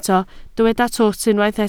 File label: "twt", Welsh